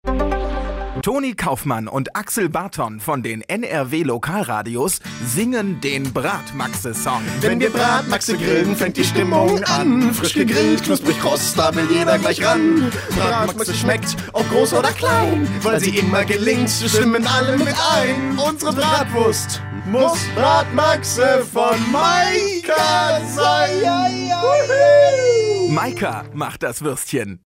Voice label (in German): Werbung